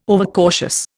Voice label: "overcautious", Indian